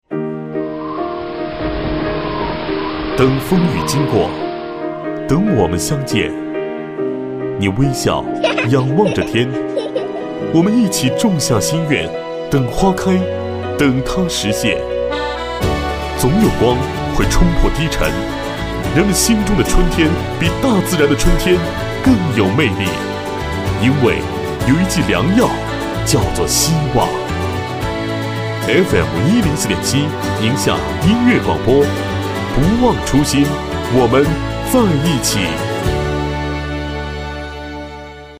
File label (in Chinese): 公益广告